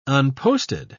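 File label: "unposted", British English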